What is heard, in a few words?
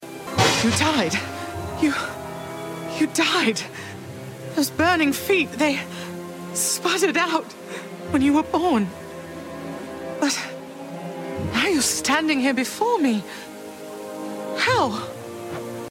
mother transatlantic emotional intimate caring british intimate sad; authentic